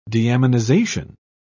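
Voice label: "deaminization", British English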